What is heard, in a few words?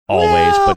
drawn out high pitched "well"